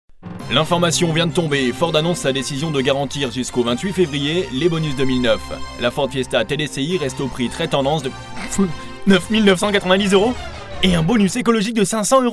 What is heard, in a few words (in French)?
Voix cliché journaliste faussement serieux puis décrochage excité